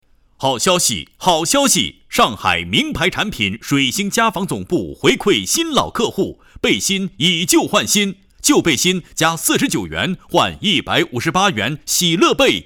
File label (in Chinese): 好消息 促销配音; 水星家纺